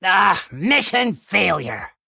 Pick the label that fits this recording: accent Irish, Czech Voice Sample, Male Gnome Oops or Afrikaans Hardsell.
Male Gnome Oops